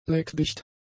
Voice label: "leckdicht", German; adjectives

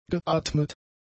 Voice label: "geatmet", German; adjectives

